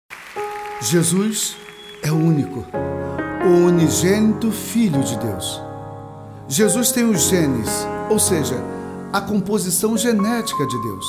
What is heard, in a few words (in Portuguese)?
Narracao